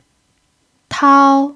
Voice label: "tao1", chinese